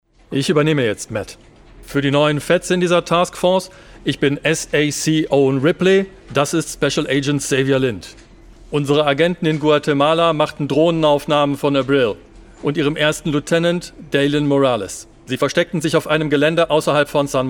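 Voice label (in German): Synchron Serie Agent Business autoritaer, Stil: Führend, autoritär, cool, amerikanischer ‚Procedural‘-Duktus. Hoher Status.; Special Agent in Charge (Briefing)(The Rookie: Feds)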